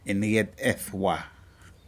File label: seashell "incet Edwa", Aneityum